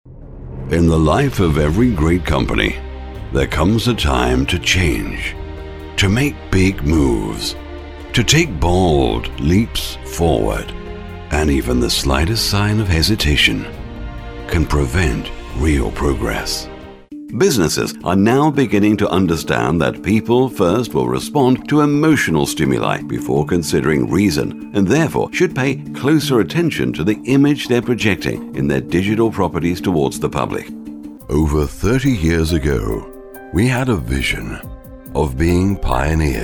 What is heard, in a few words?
narration corporate